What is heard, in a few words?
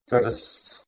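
"trst", Proto-Indo-European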